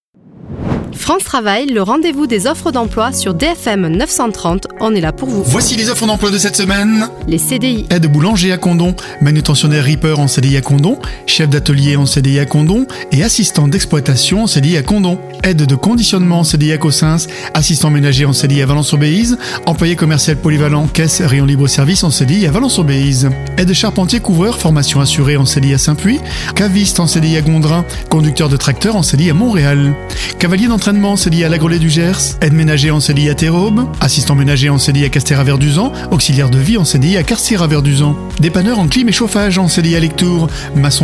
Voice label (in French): PUB CDI